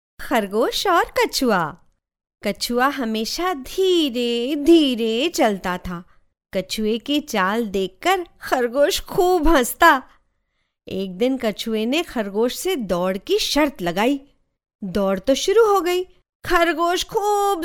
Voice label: hindi voiceover locutora hindu; locutora hindu de la India